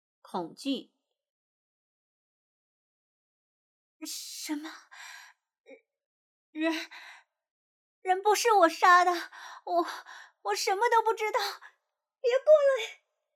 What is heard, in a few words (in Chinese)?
恐惧